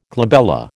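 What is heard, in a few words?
"glabella", American English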